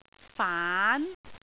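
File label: "faan2", Cantonese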